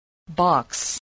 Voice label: "box", spelling

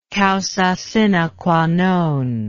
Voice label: "causa sine qua non", English

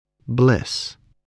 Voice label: "bliss", spelling

